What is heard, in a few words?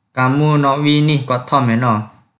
Kelantan-Pattani Malay